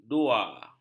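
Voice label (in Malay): "dua", Malay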